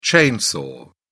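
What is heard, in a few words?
"chainsaw", British English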